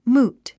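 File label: "moot", English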